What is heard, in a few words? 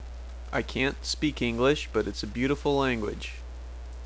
English; narrow transcription in a Midwest American accent